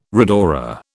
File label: "rhodora", British